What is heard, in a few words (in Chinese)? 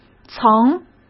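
"曾", Mandarin Chinese; chinese-flashcards; hsk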